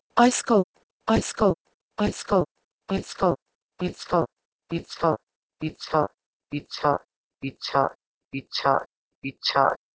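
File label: Proto-Indo-European aisko to Hindi icchaa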